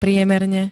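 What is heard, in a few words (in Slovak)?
"priemerne", Slovak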